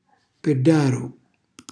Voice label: "pellaru", Calabrese; cucina calabrese; Glossario

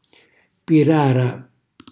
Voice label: "pirara", Calabrese; cucina calabrese; Glossario

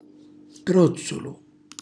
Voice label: "trozzulu", Calabrese; cucinacalabrese; glossario

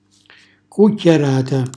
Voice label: "cucchjarata", Calabrese; cucchiaiata

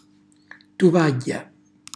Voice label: "tuvagghja", Calabrese; asciugamano; cucina calabrese

